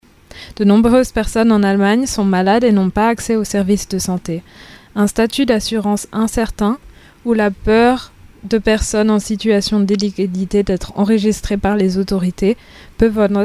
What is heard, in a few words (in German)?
Franzoesisch; Medinetz